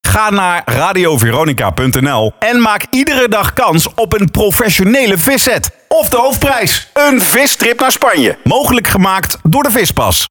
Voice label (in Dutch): promo